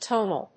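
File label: "tonal", English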